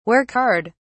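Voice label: "work hard", British English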